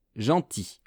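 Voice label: "gentil", French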